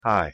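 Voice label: "hi", English pronunciation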